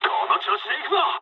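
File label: player killed enemy mood med, speech japanese; War Thunder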